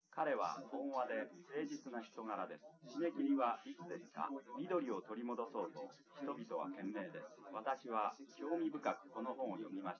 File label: test male live rec; SiSEC08; SiSEC underdetermined